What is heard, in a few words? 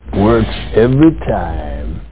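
"Works", speech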